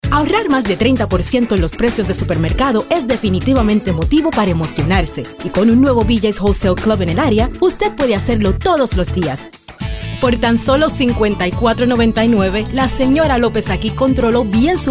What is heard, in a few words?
SPANISH ANNOUNCER WITHOUT SLATE